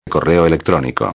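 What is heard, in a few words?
"correo electronico", Spanish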